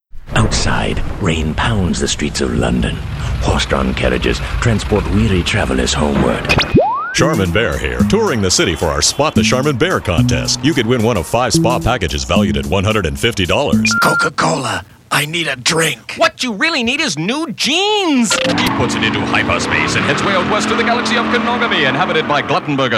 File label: english canadian animation